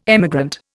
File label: "emigrant", Indian